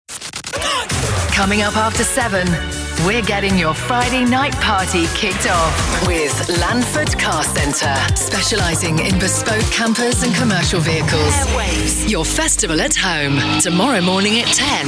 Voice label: radio imaging; radio sp imaging reel 2024

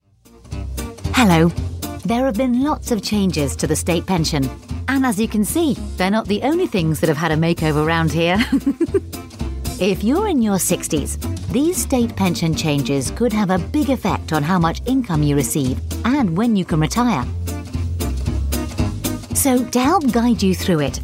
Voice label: corporate

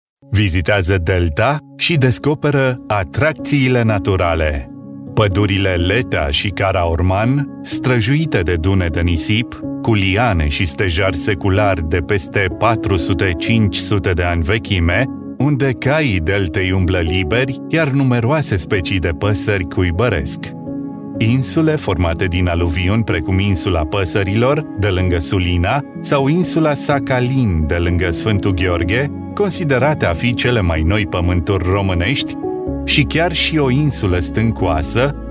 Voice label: romanian voice narration

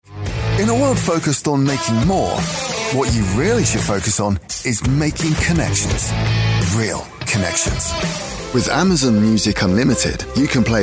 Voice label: commercials

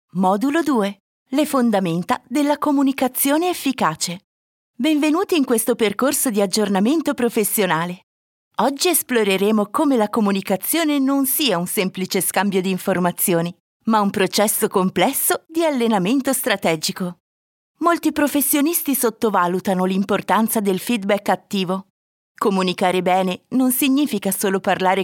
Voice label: italian elearning

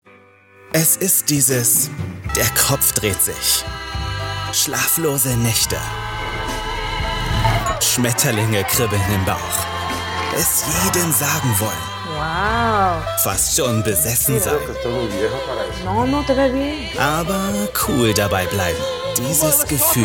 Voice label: german voice commercial

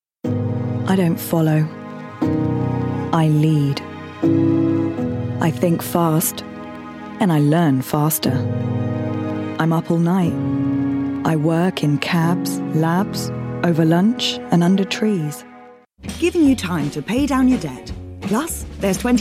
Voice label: commercial

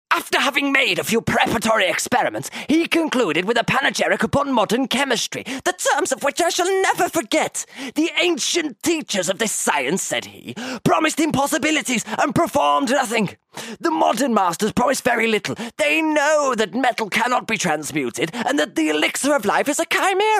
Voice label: mad scientist, Northern Voice Over

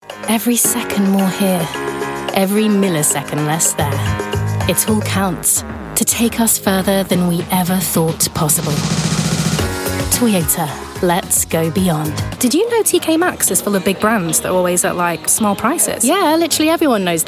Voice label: commercial

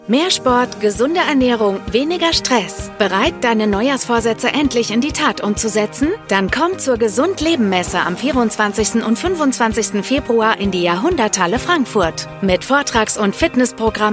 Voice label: german voice commercial

